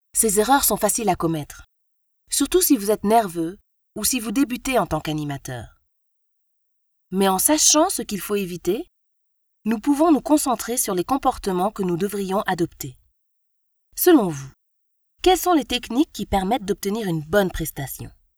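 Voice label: African French female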